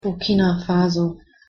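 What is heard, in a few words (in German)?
"burkina faso", German; pronunciation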